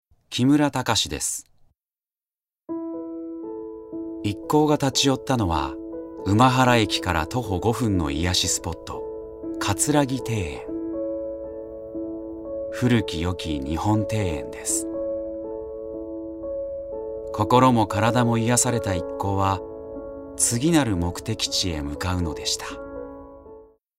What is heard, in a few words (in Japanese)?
ノーマル